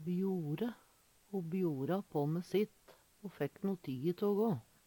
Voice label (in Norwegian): "bjore", Numedalsmål; Verb